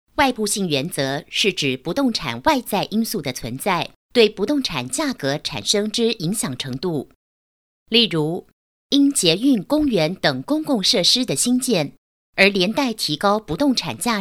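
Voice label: female, e-learning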